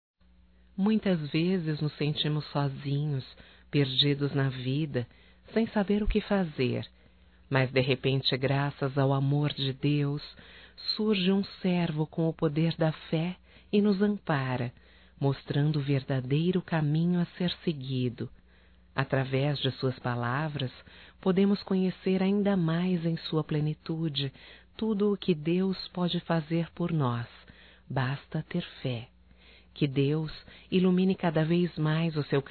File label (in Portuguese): EVAN PASTOR (F); Telemensagens 2017